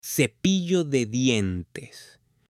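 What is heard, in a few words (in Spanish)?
"CEPILLO DE DIENTES", Spanish, male; AppLenguaje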